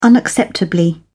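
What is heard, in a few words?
"unacceptably", British English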